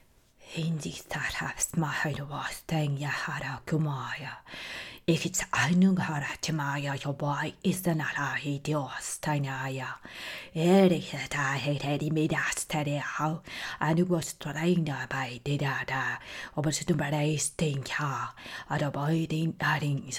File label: Magic Spell In Weird Language